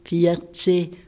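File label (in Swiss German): "viarzeh", Alsatian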